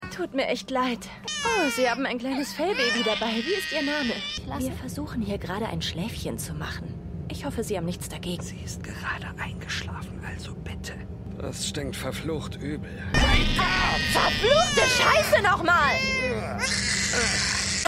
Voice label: Junge Mutter Junger Vater; Jemand Den Ich Mal Kannte

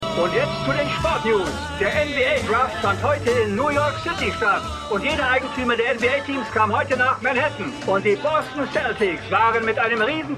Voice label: Nachrichtensprecher; Winning Time

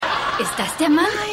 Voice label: Frau Bar; Frasier

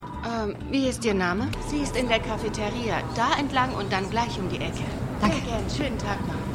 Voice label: Empfangsdame; AlaskaDaily

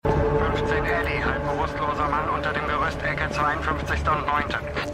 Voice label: Funk, Stimmproben; LO SVU

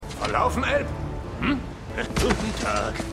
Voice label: Zwergenwachmann, Stimmproben; DER HERR DER RINGE: DIE RINGE DER MACHT; The Lord of the Rings

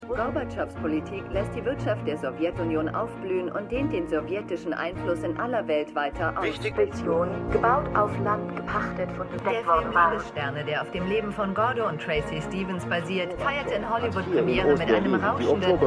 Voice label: Nachrichten; Stimmproben; For All Mankind

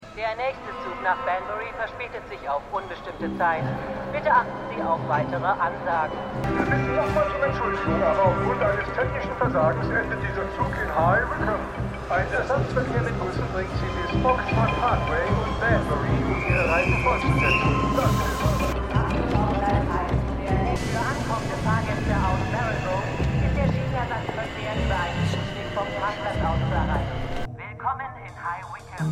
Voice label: Bahnhofsdurchsagen; Slow Horses

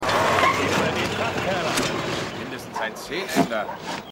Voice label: Mann; AusgerechnetAlaska

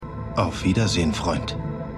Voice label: Mann Mit Brille

